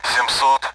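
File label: Speech Sound Russian Common AWACS Numbers; DCS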